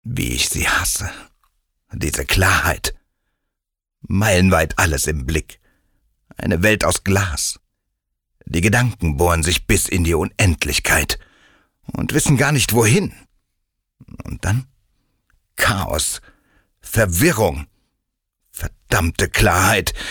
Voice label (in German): Feature Monolog; Klarheit